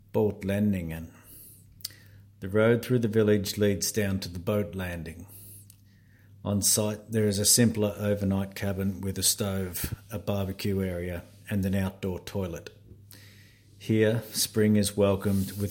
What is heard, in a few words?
English voice; Batlanningen